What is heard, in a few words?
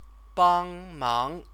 "bāng máng", Chinese; 帮 忙; help; verb-object